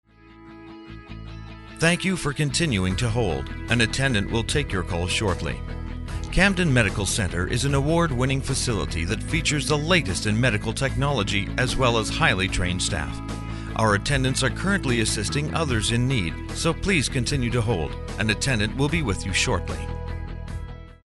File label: On Hold Read